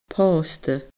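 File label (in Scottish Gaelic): "pòsta", Scottish Gaelic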